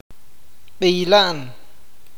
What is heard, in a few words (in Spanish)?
"golpear pegar", awakateko